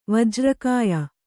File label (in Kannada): "ವಜ್ರಕಾಯ", Kannada